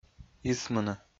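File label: "исмыны", Komi, male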